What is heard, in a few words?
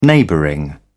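"neighbouring", British English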